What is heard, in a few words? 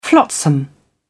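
"flotsam", British English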